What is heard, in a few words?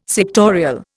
"sectorial", Indian